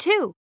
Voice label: English Number